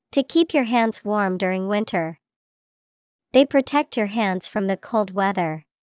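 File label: gloves, English vocabulary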